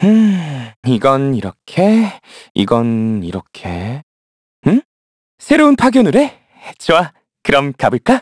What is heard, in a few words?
Vox, kr; Evan; kingsraid